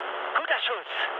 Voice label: player killed enemy, mood med, speech ge; War Thunder

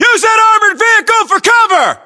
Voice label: order cover armored vehicle, US

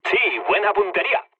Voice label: bomb success, mood low, speech; War Thunder

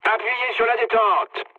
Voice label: attack position mood low, speech fr; War Thunder

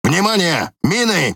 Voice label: Ru MINE WARNING; PVE

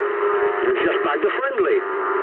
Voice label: eng player killed ally mood low, speech eng

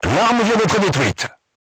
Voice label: voice message weapon destroyed, crew tanks french

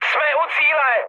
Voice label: near waypoint, speech cze, mood high; War Thunder

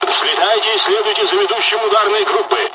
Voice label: take off, dialogs russian; hon jpn second wave; War Thunder

